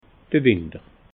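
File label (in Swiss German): "winter", Alsatian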